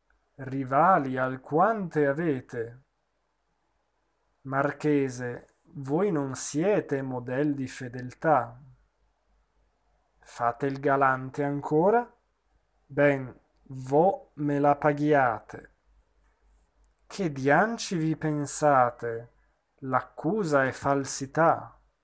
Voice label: espressivo; Traviata

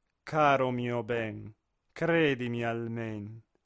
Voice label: espressivo; Caro mio ben

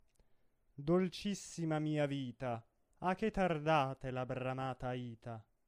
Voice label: espressivo; Dolcissima mia vita

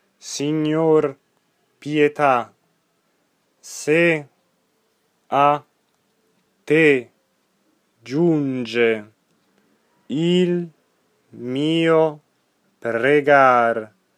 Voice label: monotono

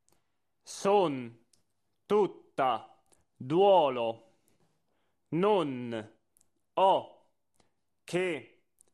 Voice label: monotono

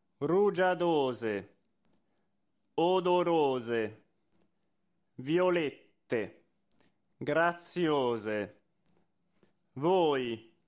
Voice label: monotono

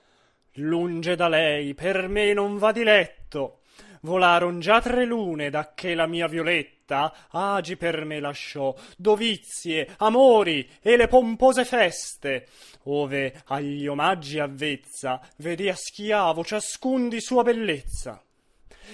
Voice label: espressivo; La traviata; De miei bollenti spiriti

